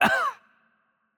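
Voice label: Hurt Light, Polish; VO; bloom minigame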